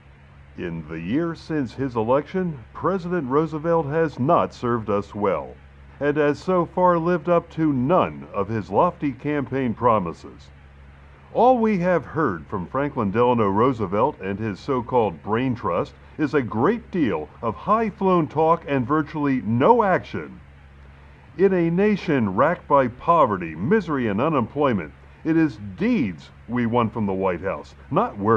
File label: newscaster with noise; sound effects and sound reinforcement